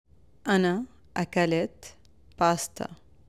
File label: Past tense Arabic